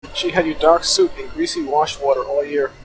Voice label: Enhanced Speech F16 Noise

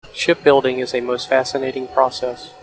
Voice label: Enhanced Speech F16 Noise

